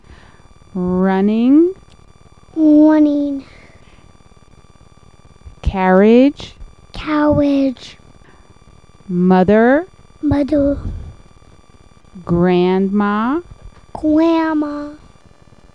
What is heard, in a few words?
R sound